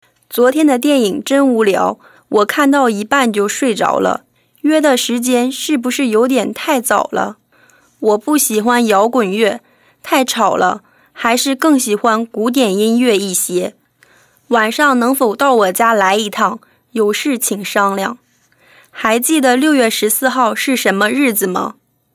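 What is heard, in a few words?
chinese mandarin female narration; voice demos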